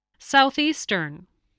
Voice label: "southeastern", English voice

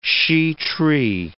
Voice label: "shea tree", words

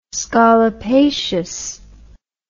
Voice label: "scolopaceous", English

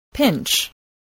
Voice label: "pinch", voice spelling

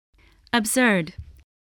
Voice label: "absurd", English voice

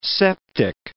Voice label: "septic", English

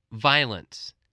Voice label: "violence", English

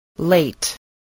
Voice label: "late", English

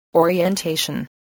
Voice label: "orientation", English voice

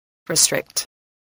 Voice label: "restrict", English

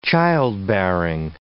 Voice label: "childbearing", English

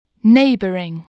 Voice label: "neighbouring", voice

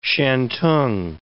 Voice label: "shantung", words

